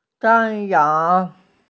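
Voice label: "shan1yang2", Mandarin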